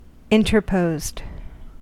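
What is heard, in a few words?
"interposed", US English; pronunciations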